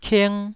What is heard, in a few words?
"king1", taiwanese syllable